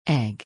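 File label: "egg", English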